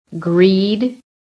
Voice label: "GREED", american